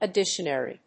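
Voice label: "additionary", English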